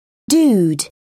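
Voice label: "dude", British English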